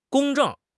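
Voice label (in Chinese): "公正", Chinese; HSK; HSK6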